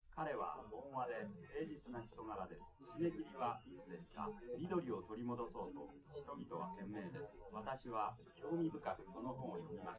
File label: test male live rec; SiSEC11; underdetermined